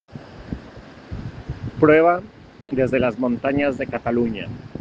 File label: Voice message